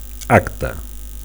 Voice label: "acte", Catalan